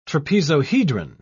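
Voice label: "trapezohedron", British English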